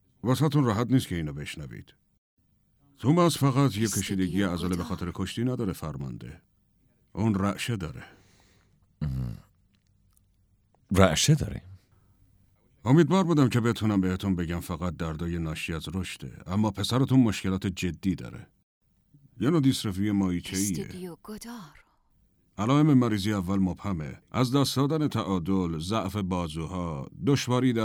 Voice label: dub